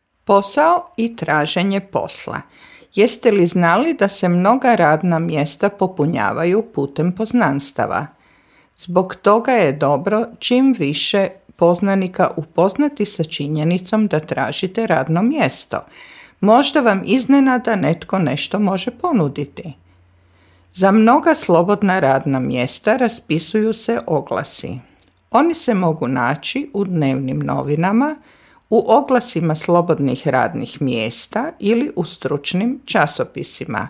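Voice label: Croatian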